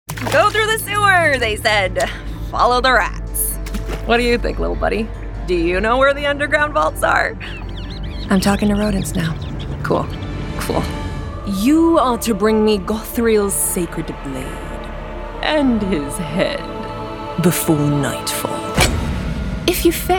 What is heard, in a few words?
women video games; An authentic, engaging voice typically served with a side of vocal fry - also available in a variety of American and British dialects!